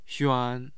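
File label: "xuan1", Mandarin Chinese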